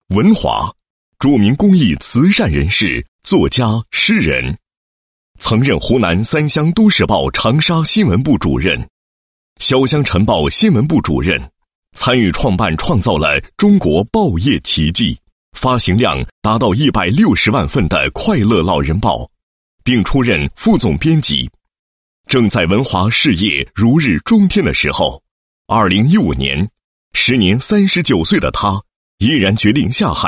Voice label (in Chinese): 男 人物介绍 介绍词